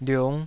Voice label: "liung5", Taiwanese syllable

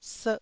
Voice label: "serh1", taiwanese

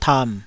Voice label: "tam3", Taiwanese

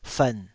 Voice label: "fern3", Taiwanese

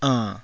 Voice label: "ann3", taiwanese

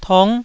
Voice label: "tong9", taiwanese Syllables

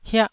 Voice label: "hiah1", taiwanese

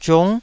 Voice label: "ziung9", Taiwanese

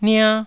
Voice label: "nia1", taiwanese syllables

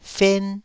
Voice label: "fen1", taiwanese syllable

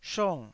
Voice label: "shong4", taiwanese

